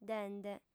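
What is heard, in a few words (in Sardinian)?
"dende", female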